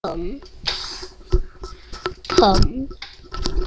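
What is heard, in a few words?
"pump", spoken word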